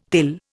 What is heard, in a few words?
"thill", Indian